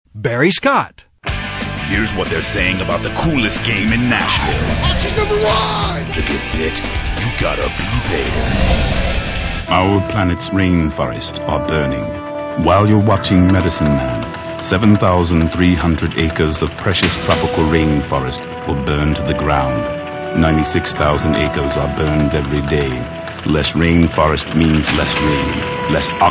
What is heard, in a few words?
Male Talent